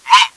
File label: whoa, german; csco; csgo; bot